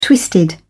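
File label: "twisted", English, British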